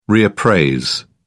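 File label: "reappraise", British English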